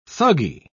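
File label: "thuggee", British English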